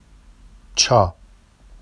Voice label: “ʧɑ”, Vafsi; adv why; چا